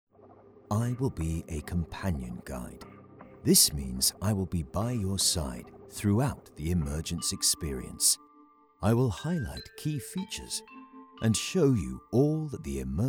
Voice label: english british e-learning